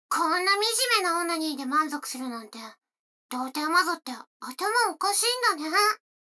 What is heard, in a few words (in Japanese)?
女の子 台詞